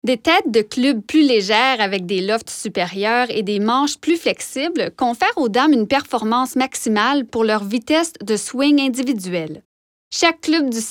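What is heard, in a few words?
French Canadian, Narration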